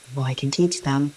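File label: voice acting, es, female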